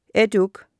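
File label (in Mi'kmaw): "etuk", Mi'kmaq; wowkwis aqq kaqaquj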